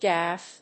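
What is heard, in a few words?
"gaffe", English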